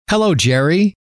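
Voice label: "hello", male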